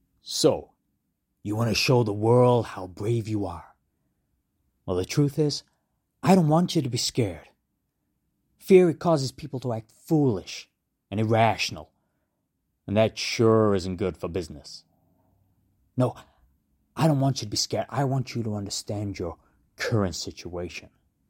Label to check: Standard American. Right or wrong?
Right